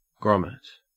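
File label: "grommet", Australian English; pronunciations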